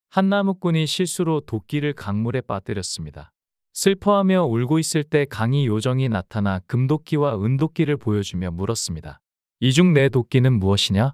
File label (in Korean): 이야기 남자; 나무꾼의 정직함